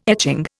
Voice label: "etching", Indian